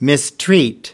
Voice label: "mistreat", us

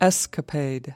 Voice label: "escapade", us

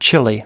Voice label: "chilly", us

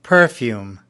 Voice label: "perfume", us

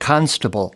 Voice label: "constable", us

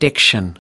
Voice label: diction, us

